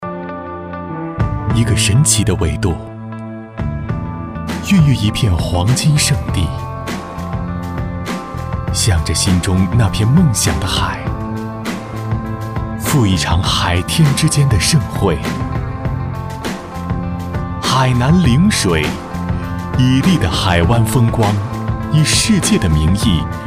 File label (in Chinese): 广告旅游参考音时尚大气